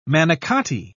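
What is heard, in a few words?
"manicotti", British English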